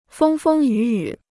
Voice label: "风风雨雨", Chinese

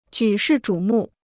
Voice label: "举世瞩目", Chinese

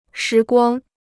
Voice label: "时光", Chinese

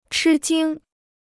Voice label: "吃惊", Chinese

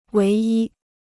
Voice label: "唯一", Chinese

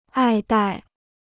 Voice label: "爱戴", Chinese

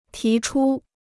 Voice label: "提出", Chinese

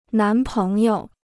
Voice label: "男朋友", Chinese

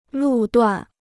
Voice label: "路段", Chinese

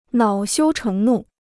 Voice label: "恼羞成怒", Chinese

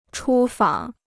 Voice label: "出访", Chinese

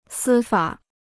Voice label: "司法", Chinese

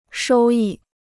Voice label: "收益", Chinese

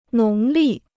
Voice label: "农历", Chinese

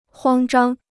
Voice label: "慌张", Chinese

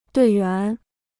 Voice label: "队员", Chinese

